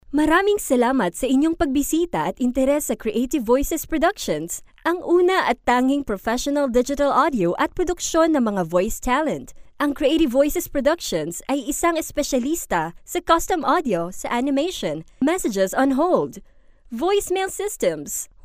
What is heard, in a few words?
Tagalog Filipino Intro